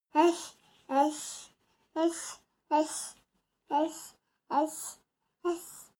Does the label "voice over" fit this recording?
No